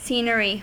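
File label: "scenery", US English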